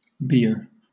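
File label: "bier", British English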